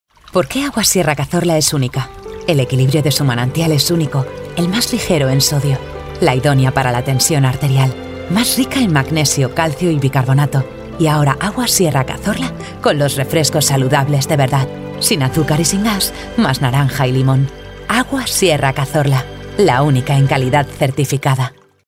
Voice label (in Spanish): Femenino